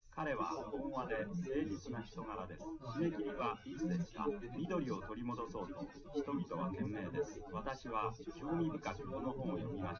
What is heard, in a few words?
test male liverec 250ms 1m src